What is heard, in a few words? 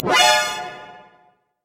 Exclamation